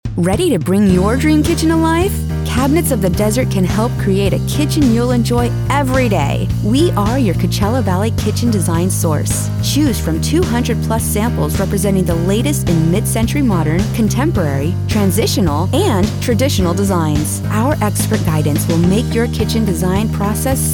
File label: Commercial; CABINETS OF DESERT